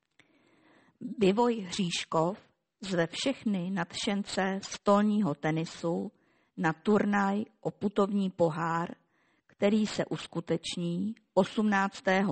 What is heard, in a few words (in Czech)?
hlaseni; rozhlas